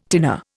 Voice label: "tinner", Indian